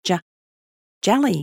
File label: babys sound audio JELLY